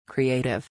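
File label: "creative", English; 創造的な、創造力のある; kriéitiv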